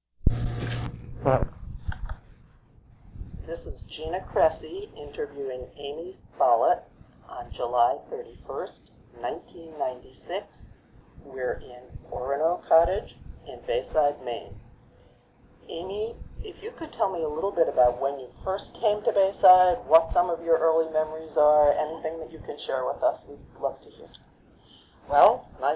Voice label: Noise Removed, Oral History